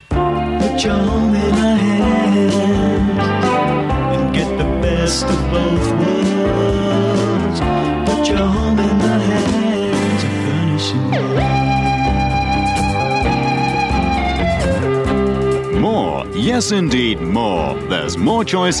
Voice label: Radio Advert, Furnishing; Radio Hallam; Furnishing World Rotherham

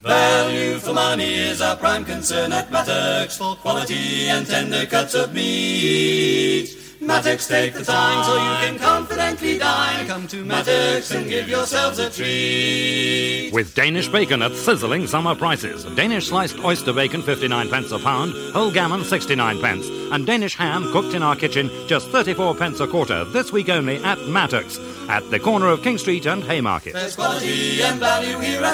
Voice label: Radio Advert; Radio Hallam; Mattocks

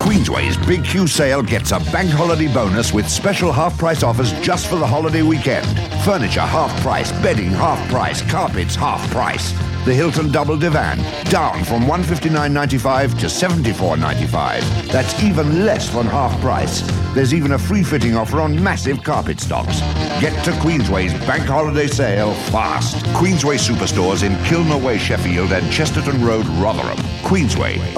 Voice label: Radio Hallam Advert - Furniture; Queensway

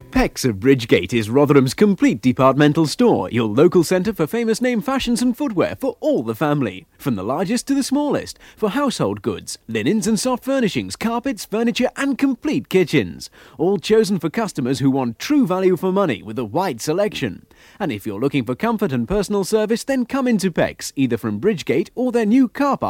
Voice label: Radio Hallam Advert; Pecks of Rotherham

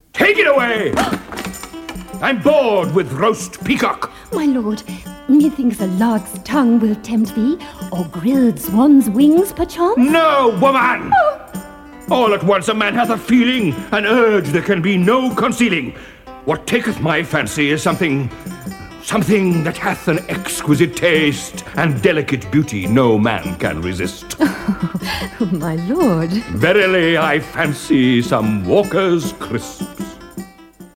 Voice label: Radio Advert; Walkers Crisps; Verily; Radio Hallam

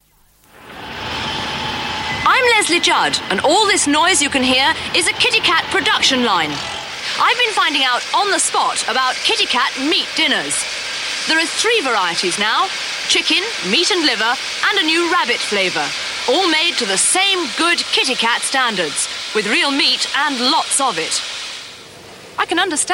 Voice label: Radio Hallam Advert

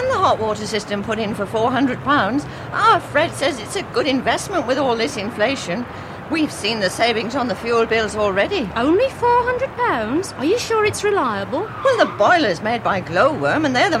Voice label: Radio Advert, Central Heating; Radio Hallam; North

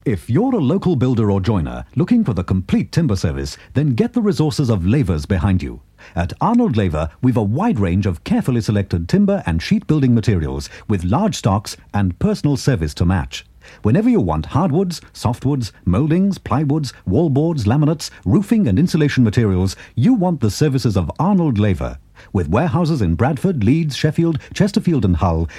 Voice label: Radio Advert; Radio Hallam; Lavers Wood

